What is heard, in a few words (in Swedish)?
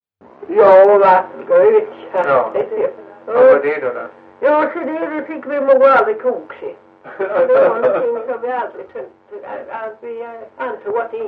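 Ventlinge Öland, dialekter; Folkminnen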